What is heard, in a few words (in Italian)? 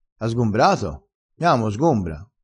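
"sgombra", vocabolario dialettale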